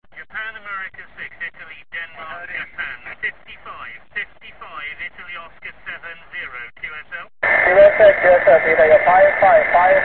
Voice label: QSO